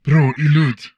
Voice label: humanoid male; Stellaris; humanoids

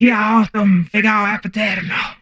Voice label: humanoid female; Stellaris; humanoids

